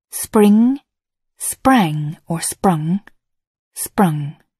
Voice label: "spring", English